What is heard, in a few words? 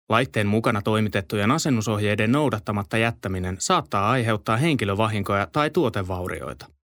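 Finnish, male, narration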